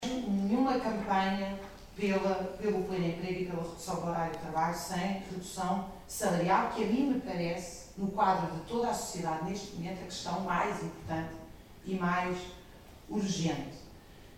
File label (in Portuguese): noticia